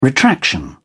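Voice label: "retraction", British English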